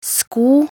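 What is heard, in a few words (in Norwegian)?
"sko", Norwegian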